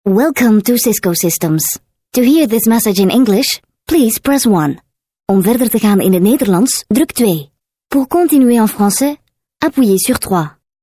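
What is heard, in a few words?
flemish female ivr; dutch; voice demos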